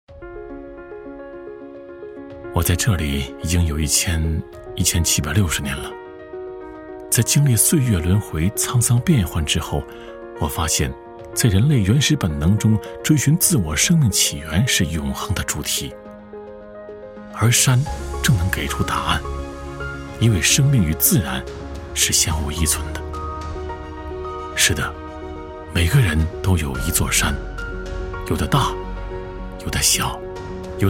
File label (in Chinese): 男 自述 口语化; 东白源